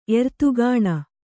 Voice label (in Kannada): "ಎರ್ತುಗಾಣ", Kannada